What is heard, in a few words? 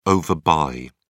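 "overbuy", British English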